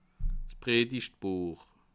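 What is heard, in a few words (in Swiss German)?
"predigbuech", Bas Rhin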